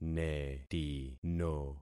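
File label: "netino", concatenated syllables; Affixing experiment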